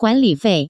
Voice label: guanli fei, Taiwan Chinese; rent; kanfang; heyue